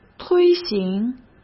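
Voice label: "推行", Mandarin Chinese; hsk; chinese flashcards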